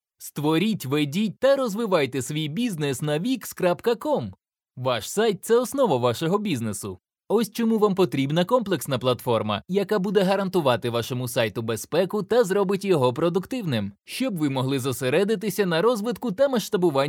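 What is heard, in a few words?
Ukrainian male voice over, upbeat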